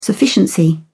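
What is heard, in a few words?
"sufficiency", British English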